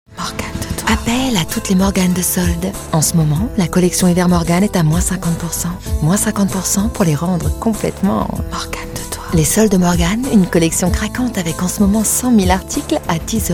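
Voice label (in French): posee sexy, voix off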